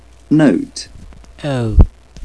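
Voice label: "note", British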